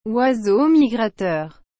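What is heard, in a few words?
"oiseaux migrateurs", French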